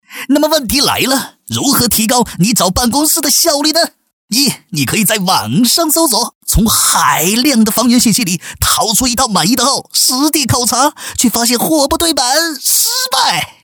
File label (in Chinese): 男 模仿